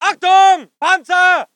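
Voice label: voice german infantry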